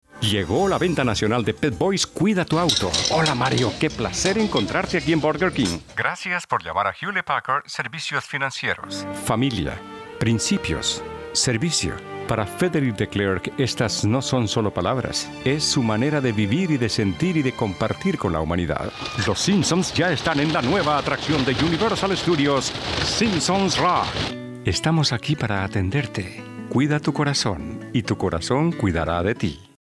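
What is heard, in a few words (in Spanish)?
latam colombian male commercial; voice demos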